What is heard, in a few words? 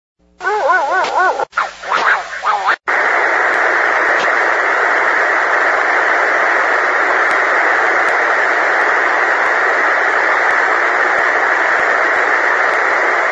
Weird Brazilians, Satcom